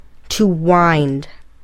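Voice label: "to wind", English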